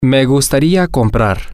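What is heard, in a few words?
how much is this, Spanish, male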